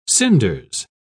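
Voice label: "cinders", British English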